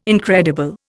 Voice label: "incredible", Indian